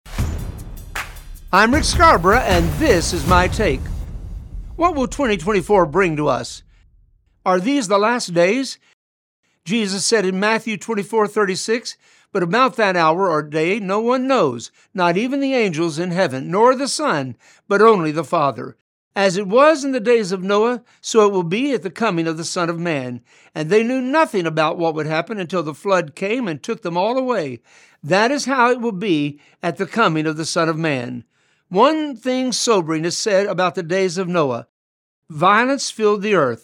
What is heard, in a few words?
Radio Spot; ARe thee the last days